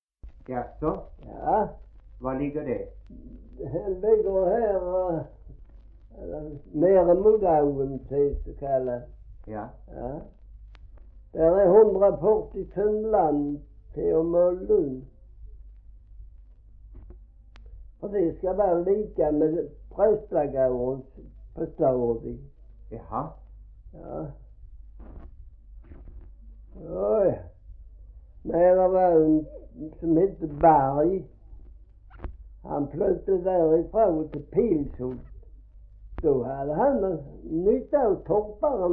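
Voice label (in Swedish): Gråmanstorp Skåne, dialekter; Folkminnen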